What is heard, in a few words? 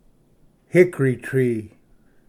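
"hickory tree", English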